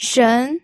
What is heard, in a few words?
"shen2", pinyin